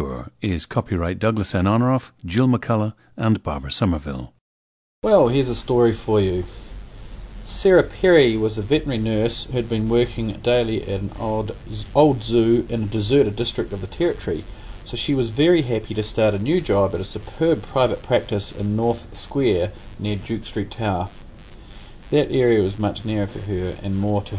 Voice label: New Zealand

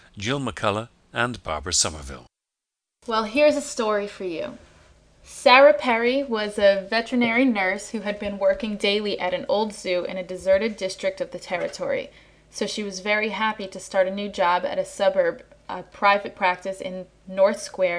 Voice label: new jersey, dialects

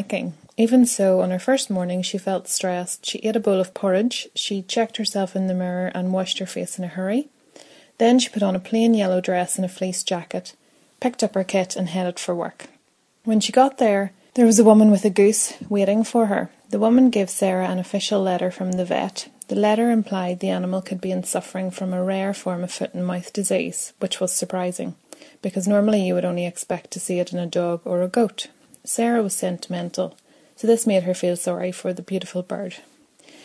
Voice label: north ireland

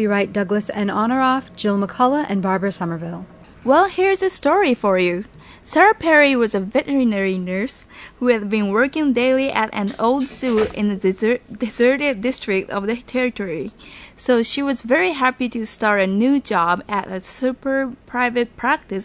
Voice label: japan

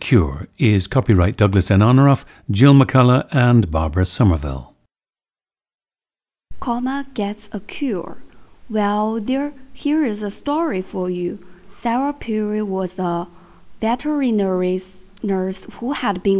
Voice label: hubei

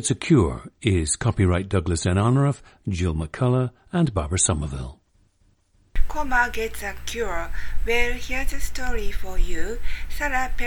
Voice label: japan

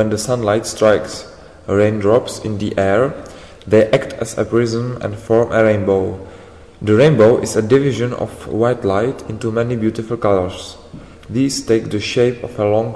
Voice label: czech

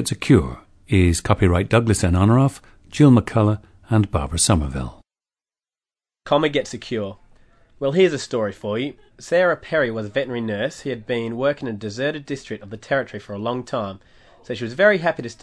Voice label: australia

